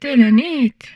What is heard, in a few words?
humanoid female; Stellaris